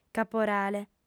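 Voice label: "caporale", female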